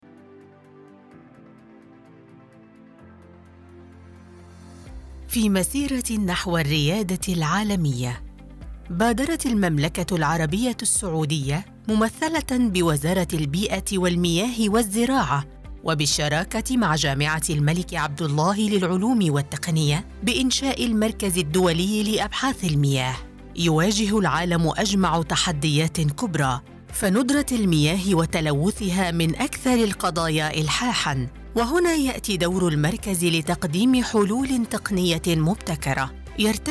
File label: arabic corporate videos